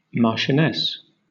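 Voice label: "marchioness", British English; pronunciations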